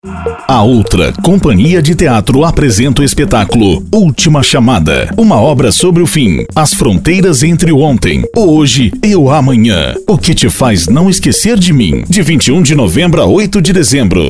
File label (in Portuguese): Spot